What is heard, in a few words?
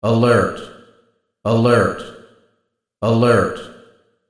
Voice Computer Room Male Alert Alert